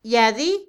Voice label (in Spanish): "pedir", Otomí, mujer; Habla; Vocabulary; INALI